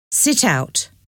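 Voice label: "sit out", English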